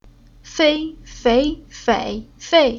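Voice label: "Fei", Chinese syllable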